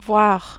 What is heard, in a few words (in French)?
"voire", French